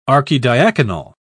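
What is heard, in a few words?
"archidiaconal", English, British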